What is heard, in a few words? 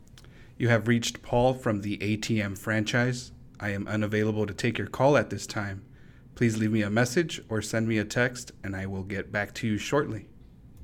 ivr greeting